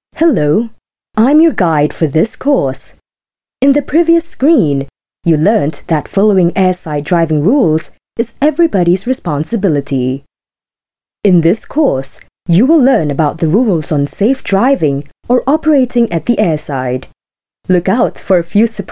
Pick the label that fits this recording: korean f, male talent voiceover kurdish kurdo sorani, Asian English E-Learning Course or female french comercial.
Asian English E-Learning Course